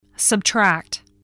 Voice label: "subtract", spelling

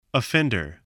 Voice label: "offender", voice, spelling

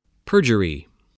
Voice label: "perjury", voice, English

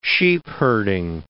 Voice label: "sheepherding", English

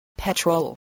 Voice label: "petrol", voice, English

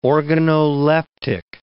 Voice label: "organoleptic", English word

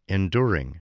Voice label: "enduring", spelling

